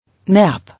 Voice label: "Nap", English